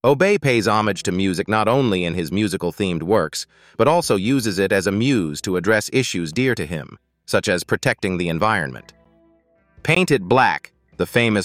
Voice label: ambiente english